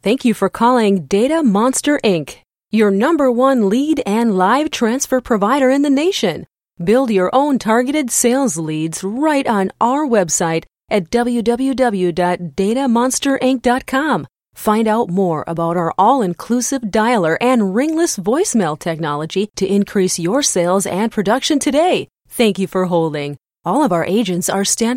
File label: IVR American FEM